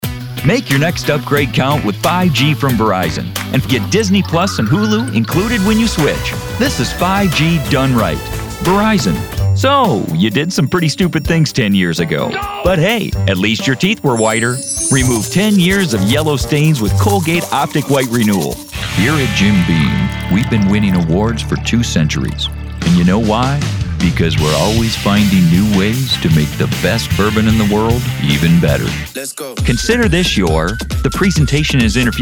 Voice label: Commercial